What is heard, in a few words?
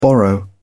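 "borrow", British English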